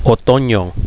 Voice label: "fall", Spanish